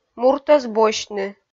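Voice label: "муртӧс босьтны", Komi, female; kpv audio dict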